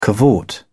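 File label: "cavort", British English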